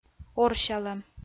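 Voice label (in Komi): "орсялӧм", Komi, female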